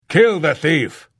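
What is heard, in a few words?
Thief, voice; Morrowind GOTY GOG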